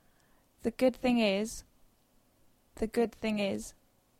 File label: Dictation; phonology